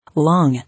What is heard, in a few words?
"Long", English